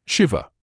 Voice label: "shiver", English